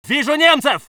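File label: voice soviet infantry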